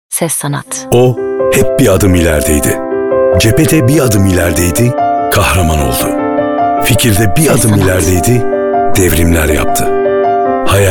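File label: Turkish, male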